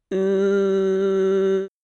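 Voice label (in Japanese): "う", Japanese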